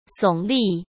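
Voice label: "耸立", Mandarin Chinese; chinese flashcards; hsk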